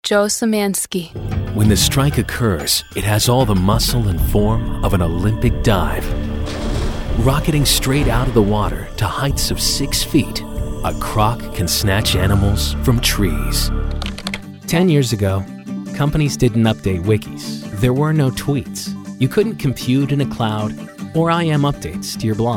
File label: narration